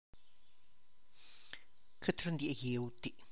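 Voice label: tlacochahuaya, Zapotec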